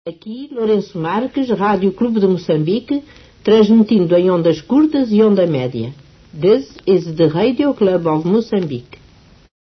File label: Stn ID Short & Med Wave; early 60s